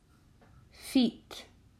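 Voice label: "Feet", English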